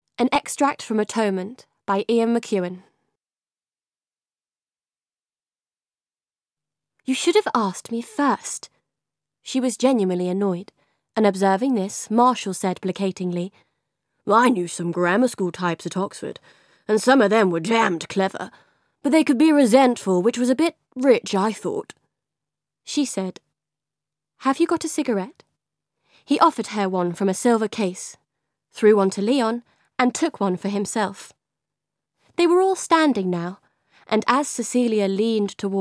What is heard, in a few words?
female English RP accent narration; voices; devine voices